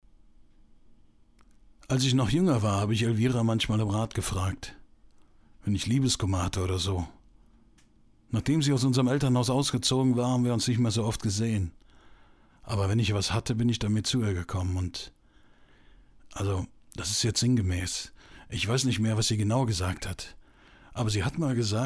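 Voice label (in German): Stimmprobe Erzähler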